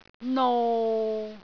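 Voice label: "nou6", Cantonese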